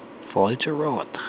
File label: "failte", Irish; Irish and Celtic